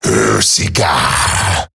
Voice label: Player Spotted Male; Eximus; Grineer; Battle; en